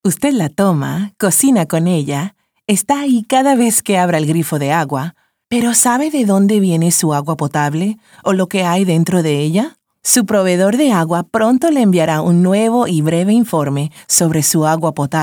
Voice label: 30s Female Spanish Commercial